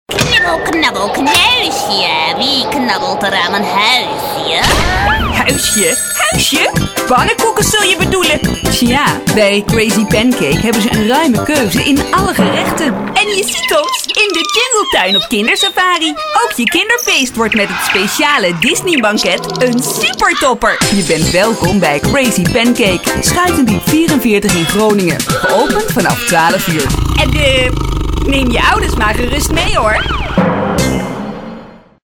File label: Dutch; knibbel knabbel